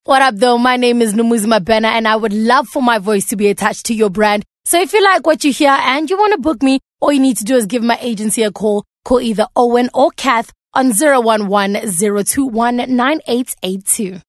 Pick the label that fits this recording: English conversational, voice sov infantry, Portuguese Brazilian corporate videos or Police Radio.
English conversational